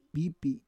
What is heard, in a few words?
"pipi", Roon